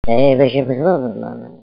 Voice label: phone voice